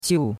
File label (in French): "qiu4", chinois; Cours-de-Chinois